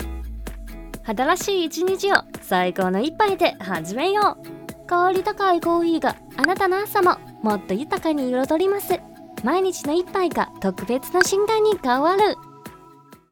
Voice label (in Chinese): 日语年轻活泼广告